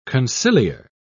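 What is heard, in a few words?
"conciliar", British English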